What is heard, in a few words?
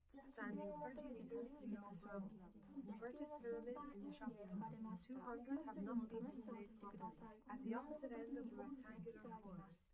female live rec 5cm sim; SASSEC07